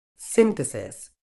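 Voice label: "synthesis", British English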